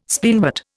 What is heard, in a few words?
"Spleenwort", Indian